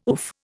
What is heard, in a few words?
"oof", Indian